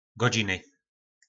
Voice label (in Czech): "Godźiny", nářečí Po našimu; Hodiny